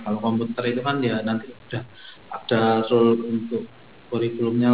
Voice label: telephone, Conversational Speech; Indonesian